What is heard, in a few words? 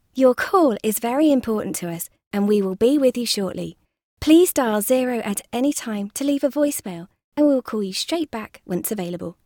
Telephony